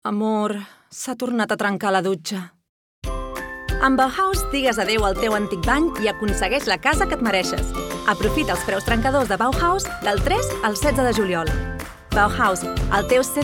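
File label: Catalan, Commercial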